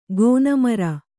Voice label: "ಗೋನಮರ", Kannada

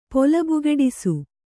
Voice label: "ಪೊಲಬುಗೆಡಿಸು", Kannada

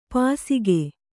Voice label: "ಪಾಸಿಕೆ", Kannada

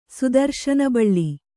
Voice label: "ಸುದರ್ಶನಬಳ್ಳಿ", Kannada; sudarśana baḷḷi; noun

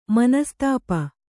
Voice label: "ಮನಸ್ತಾಪ", Kannada

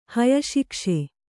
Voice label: "ಹಯಶಿಕ್ಷೆ", Kannada

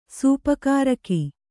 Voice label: "ಸೂಪಕಾರಕಿ", Kannada

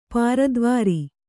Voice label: "ಪುರದ್ವಾರ", Kannada

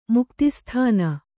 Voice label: "ಮುಕ್ತಿಸ್ಥಾನ", Kannada

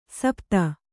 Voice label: "ಸುಪಥ", Kannada

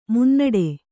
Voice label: "ಮುನ್ನಡೆ", Kannada

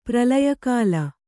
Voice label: "ಪ್ರಲಯಕಾಲ", Kannada

